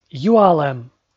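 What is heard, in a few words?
"юалӧм", Komi, male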